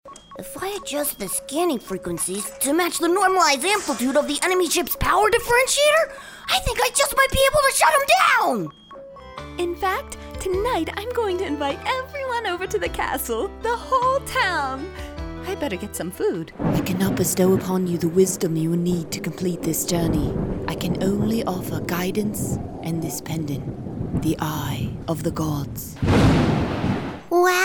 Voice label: Animation

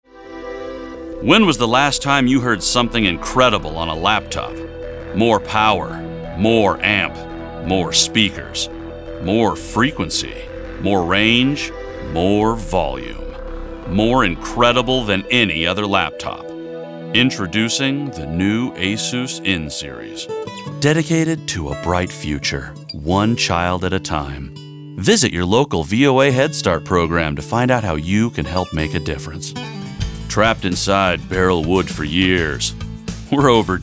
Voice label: commercial

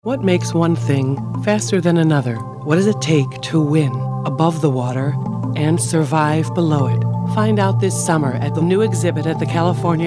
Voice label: commercial

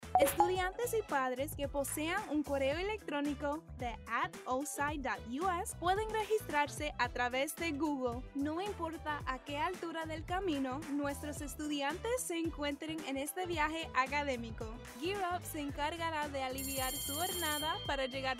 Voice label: Narration Spanish

